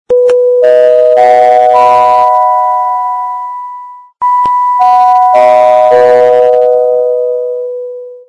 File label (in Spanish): llamada aeropuerto